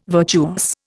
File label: "virtuous", Indian